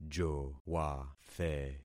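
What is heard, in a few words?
"jowathe", concatenated syllables; Affixing experiment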